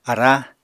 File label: "ará", Apatani